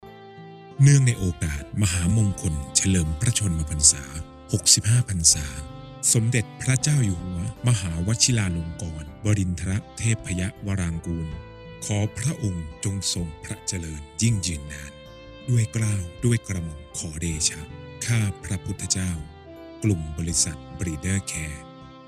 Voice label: Thai